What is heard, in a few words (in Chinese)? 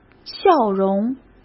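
"笑容", Mandarin; chinese-flashcards; hsk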